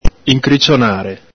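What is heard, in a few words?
"incricionare", Venetian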